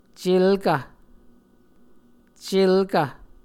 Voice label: "celkv", muscogee